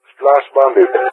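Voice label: "splash bandit", English, GREECE; Messages; Allied Flight; DCS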